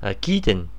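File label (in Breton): "aquitaine", Breton; france region; gcompris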